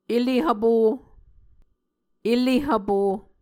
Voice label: "ele hvpo", muscogee